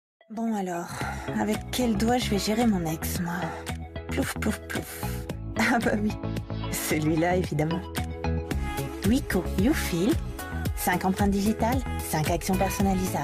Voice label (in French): voix off; wiko u feel